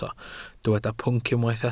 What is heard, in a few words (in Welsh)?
"pwnc", Welsh